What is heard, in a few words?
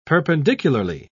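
"perpendicularly", British English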